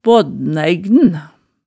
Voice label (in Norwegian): "baadnæign", hemsedals maalet tale